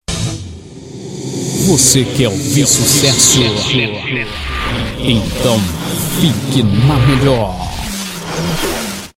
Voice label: vinhetas, vc que ouvir sucesso então fique na melhor voz

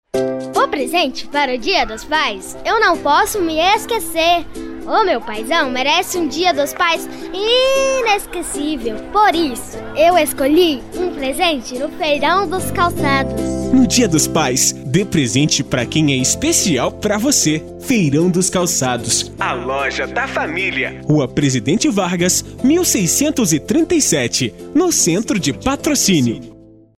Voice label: locução brasil

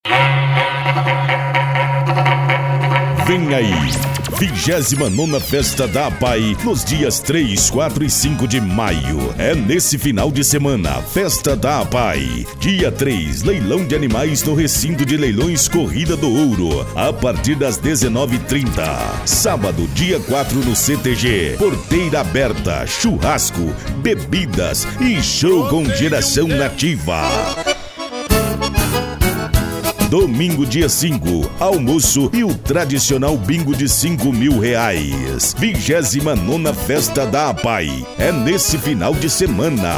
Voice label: locutor

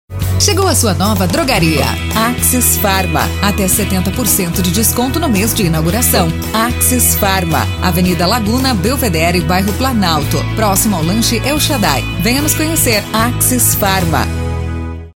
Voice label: carro de som; axes farma

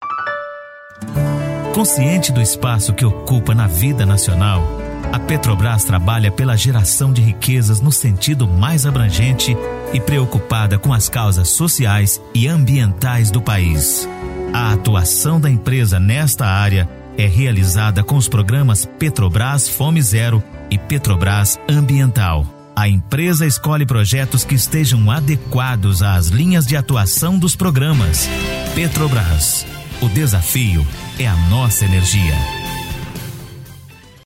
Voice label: locutor spot; petrobras

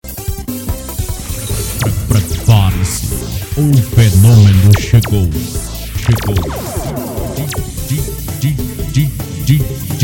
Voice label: locutor amostra dj

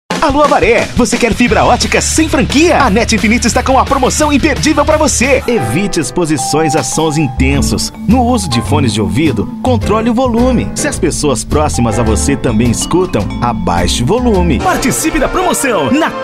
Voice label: publicidades locutor

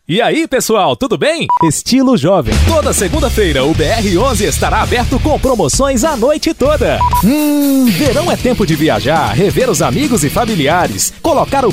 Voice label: locutor

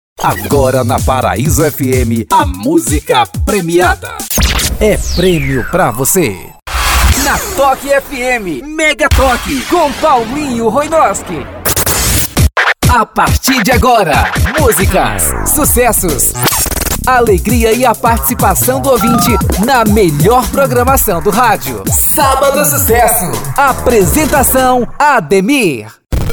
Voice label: vinhetas voz locutor vinhetas de radio fm

